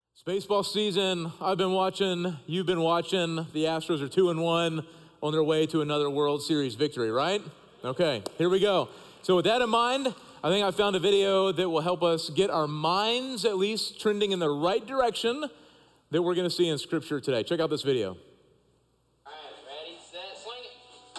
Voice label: Sermon English